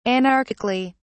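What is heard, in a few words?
"anarchically", British English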